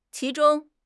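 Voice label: "qi2 zhong1", Mandarin Chinese; HSK; HSK4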